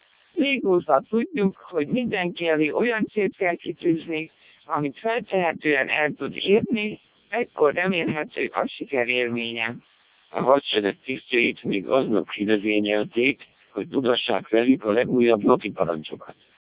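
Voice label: Hungarian speech, CODEC2 vocoder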